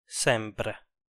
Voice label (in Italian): "sempre", Italian